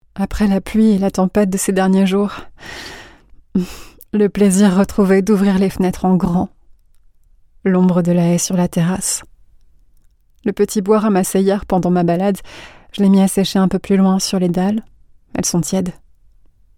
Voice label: VOIX INTERIEURE; un rectangle parfait

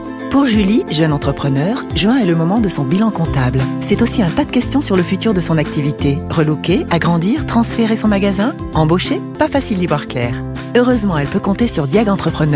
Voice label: Dynamique Complice Souriant; Entrepreneur

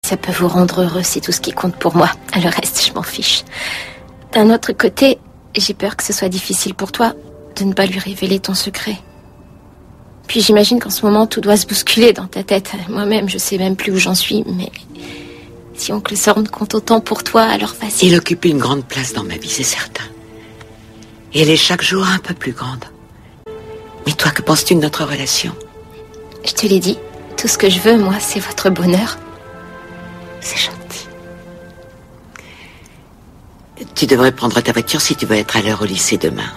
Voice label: DOUBLAGE Phoebe 18 ans; amour gloire et beaute

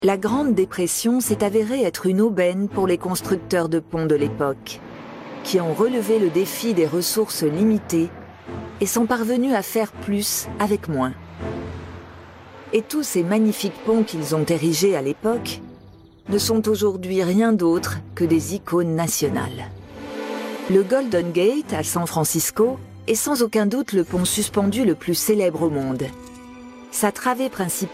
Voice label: narration doc; Un monde de ponts

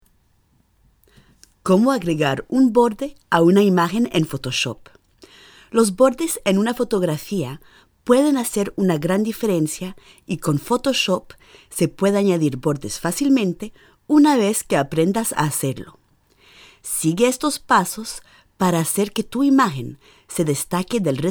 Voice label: Voix Espagnole tutoriel

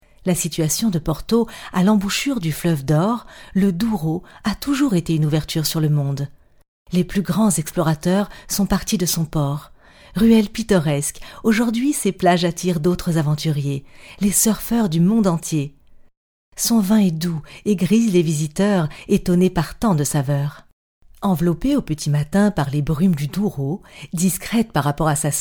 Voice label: DOCU; PORTO LE DURO

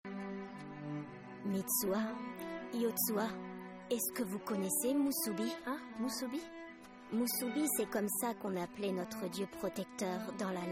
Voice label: Voix grand mere; Your Name

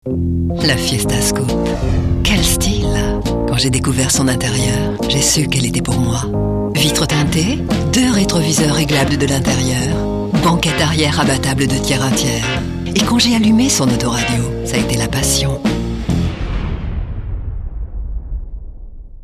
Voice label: Pub radio; Ford

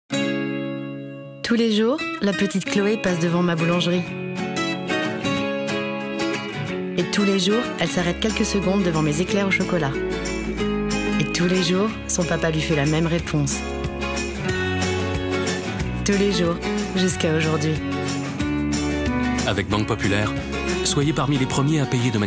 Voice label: Spot TV; Banque Populaire; Apple Pay

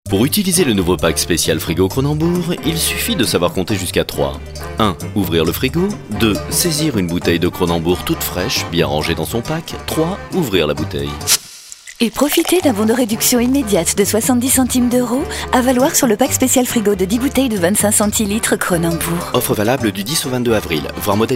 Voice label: Promo classe; KRONENBOURG

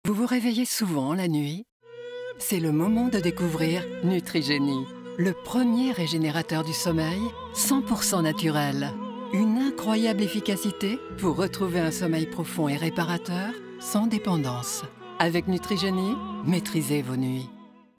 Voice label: Film TV compléments alimentaires; Nutrigenie

